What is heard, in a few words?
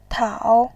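"tao", Mandarin Chinese, third tone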